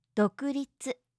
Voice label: "dokuritsu", japanische maedchennamen

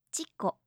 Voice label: "chiko", japanische maedchennamen

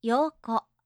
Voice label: "yoko", japanische

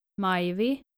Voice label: nordische maedchennamen majvi

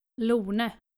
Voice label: "Lone", daenische maedchennamen

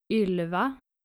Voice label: "ylva", nordische maedchennamen

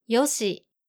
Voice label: "yoshi", japanische jungennamen

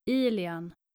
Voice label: "Ilian", nordische Jungennamen

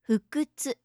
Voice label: "fukutsu", japanische maedchennamen

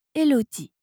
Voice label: "elodie", franzoesische maedchennamen

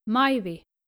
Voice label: "Majvi", daenische maedchennamen

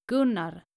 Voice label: "gunnar", nordische jungennamen

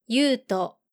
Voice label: "Yuuto", japanische Jungennamen

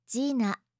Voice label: "gina", japanische maedchennamen

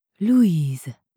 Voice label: "louise", franzoesische maedchennamen

